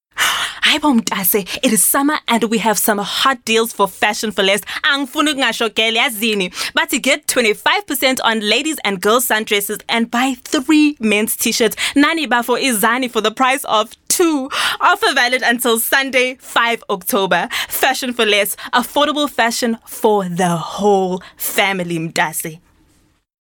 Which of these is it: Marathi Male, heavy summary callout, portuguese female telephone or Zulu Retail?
Zulu Retail